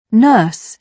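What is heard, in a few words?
"nurse", British English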